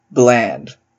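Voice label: "bland", English, Canadian accent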